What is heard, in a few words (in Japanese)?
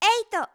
「えいと」, 明るい声